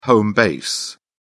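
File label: "home base", British English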